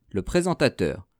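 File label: "le presentateur", French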